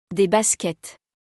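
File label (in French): "Des baskets", French; vocabulaire; le lexique de l'habillement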